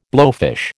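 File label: "blowfish", American English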